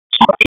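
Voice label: Police Spec Ops Tac, radio; Raleigh; Tac 4; wake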